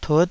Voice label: "tut5", taiwanese